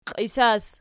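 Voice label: "qiisas" nominative, Archi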